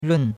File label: "run4", chinois; Cours-de-Chinois